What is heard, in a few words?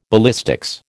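"ballistics", American English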